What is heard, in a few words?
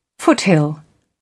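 "foothill", British English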